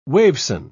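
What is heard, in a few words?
"waveson", British English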